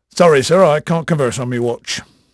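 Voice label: English male, voice; Pirates Of The Caribbean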